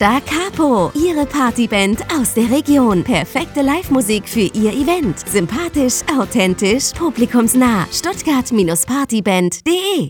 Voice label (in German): Funkspot; anntenne